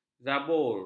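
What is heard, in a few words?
"zabur", Malay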